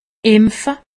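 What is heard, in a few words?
"impfe", Alsatian; medecine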